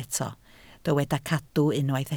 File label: "cadw", Welsh